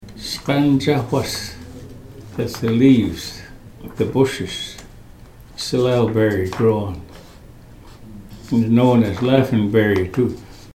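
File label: sgan dzawas, Ts’msyen; whipped soap berries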